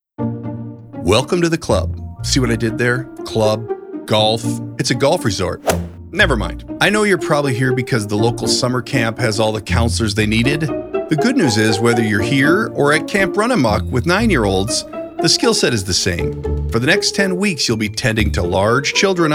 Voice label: E LEARNING

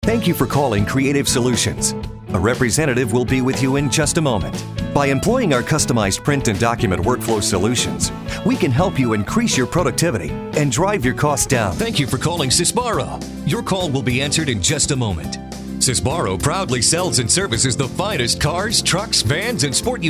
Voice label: On Hold IVR

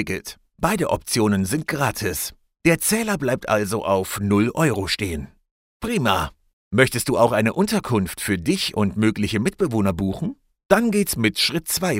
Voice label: Explainer Festival Ticketing

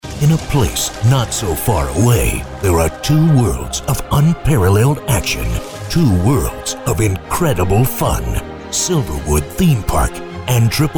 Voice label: Movie Trailer

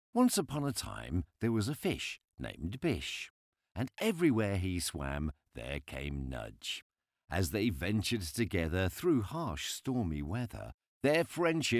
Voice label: Audio Book sample

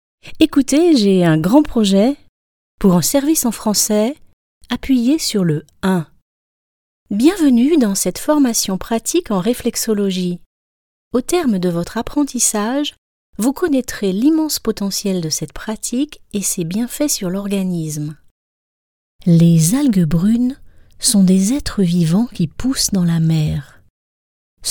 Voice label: Medley Narration

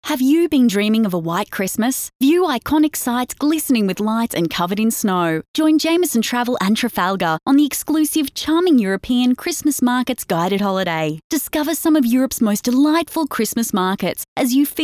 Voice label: Travel radio ad

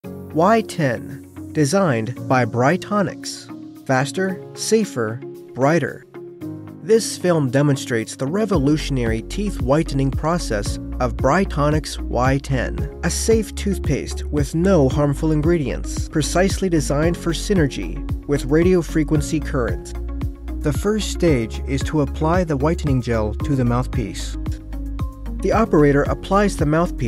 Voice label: Product Promo; Bright Tonix

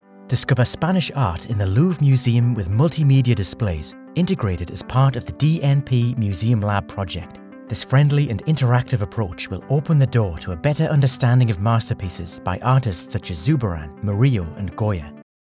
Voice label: e-learning

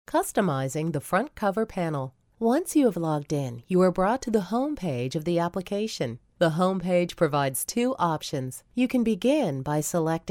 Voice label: Explanation Video

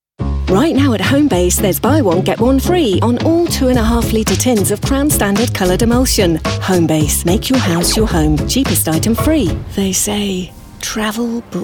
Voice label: commercial, british

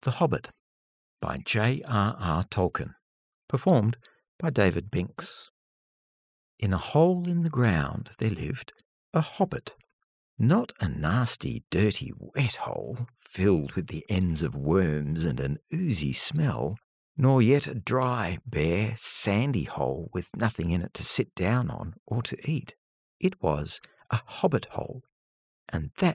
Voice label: Audiobook, Fictional Storyteller, male; Audiobooks; The Hobbit

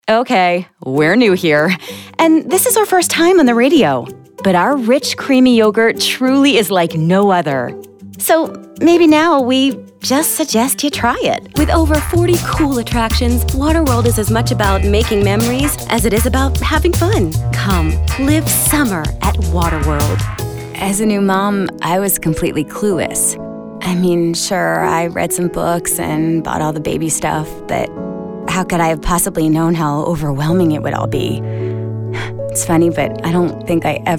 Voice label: GB voice Commercial

